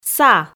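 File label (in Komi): "са", Komi, female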